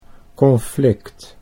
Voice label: "conflict", Dutch